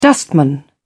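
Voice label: "wingman", British English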